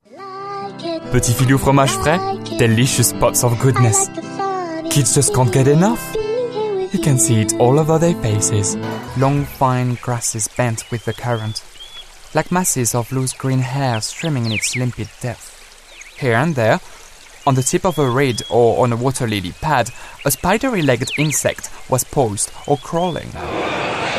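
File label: French English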